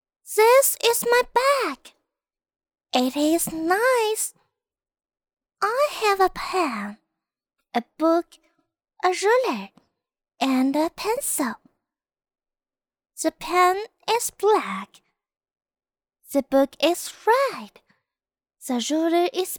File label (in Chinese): 女 英 女童